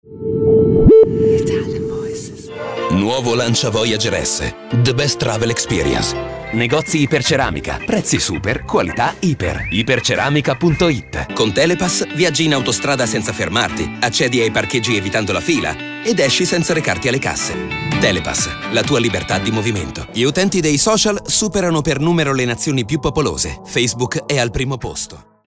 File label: ITALIAN VOICES Friendly Deep, male voices